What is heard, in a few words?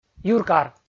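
"юркар", Komi, male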